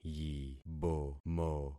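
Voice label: "yibomo", concatenated syllables; Affixing experiment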